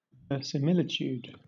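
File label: "verisimilitude", British English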